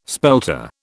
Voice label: "spelter", British